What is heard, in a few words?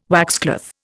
"waxcloth", Indian